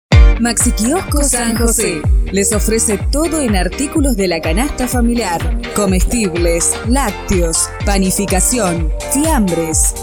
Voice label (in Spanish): Potente Versatil Vendedora, voces femeninas